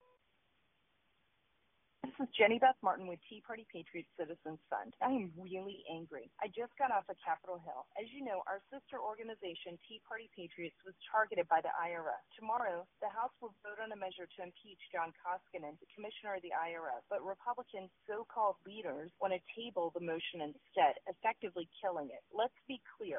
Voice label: voicemail